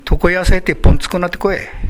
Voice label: "bontuko", minamiyamagata

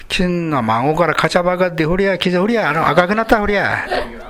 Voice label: "kachabagu", minamiyamagata

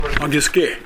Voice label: "agisuke", minamiyamagata

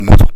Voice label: "mozo", minamiyamagata

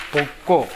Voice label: "bokko", minamiyamagata

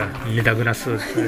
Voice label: "midagunasu", minamiyamagata

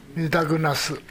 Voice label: "midagunasu", minamiyamagata

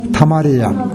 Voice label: "tamariya", minamiyamagata

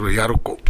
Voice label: "yaroko", minamiyamagata

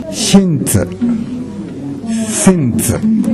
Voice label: "sentu", minamiyamagata

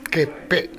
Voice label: "geppe", minamiyamagata

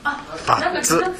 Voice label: "battu", minamiyamagata

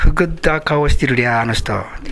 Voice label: "fuggure", minamiyamagata

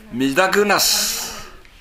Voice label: "midagunasu", minamiyamagata

